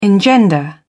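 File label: "engender", British English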